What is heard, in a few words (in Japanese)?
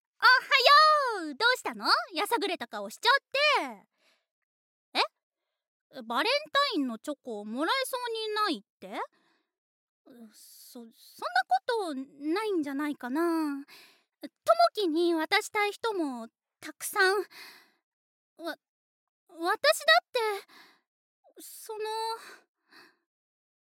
Genki Na Osananajimi, voice sample